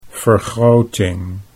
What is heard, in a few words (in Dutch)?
"vergroting", Dutch